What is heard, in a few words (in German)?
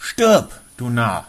Narr